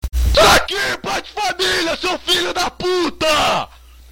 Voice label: total rage

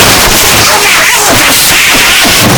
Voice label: angry finnish man

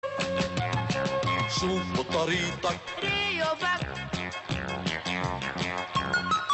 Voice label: old lebanese ad; rayovac

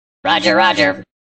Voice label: battle droid roger roger; star wars; b1 battle droid; kampfdroide

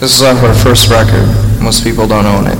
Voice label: cubain speech

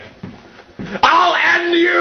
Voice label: yelling at cats

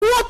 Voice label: flustered

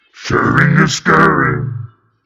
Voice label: demon scaring

